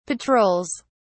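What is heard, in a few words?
"patrols", British English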